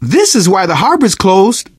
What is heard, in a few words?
harbor blocked, survivor voice; Left 4 Dead 2; Source Engine; video games; manager